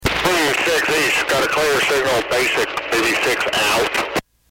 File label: Clear East, railfan